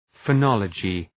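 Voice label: "phonology", English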